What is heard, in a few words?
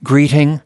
"greeting", us